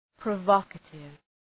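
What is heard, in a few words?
"provocative", English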